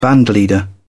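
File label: "bandleader", British English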